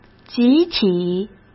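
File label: "集体", Mandarin Chinese; chinese flashcards; hsk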